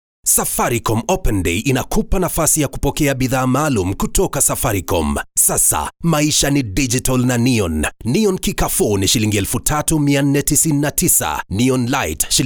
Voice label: swahili others

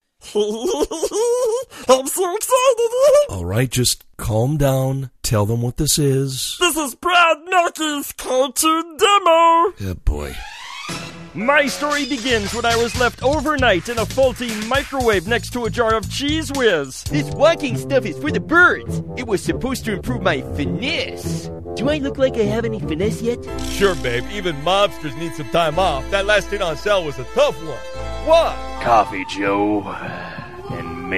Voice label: English American animation

